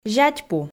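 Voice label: "зятьпу", Komi, female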